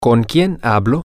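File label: with Whom Am I Speaking, Spanish, male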